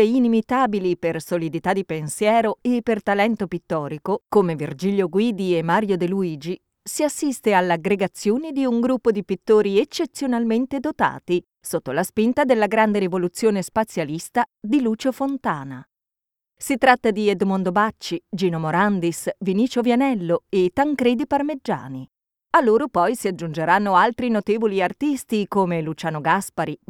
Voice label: Italian documentaries